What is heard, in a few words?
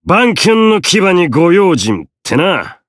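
Voice variable chant, Japanese; hardy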